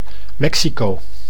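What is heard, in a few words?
"Mexico", Dutch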